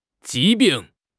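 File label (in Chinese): "疾病", Chinese; HSK6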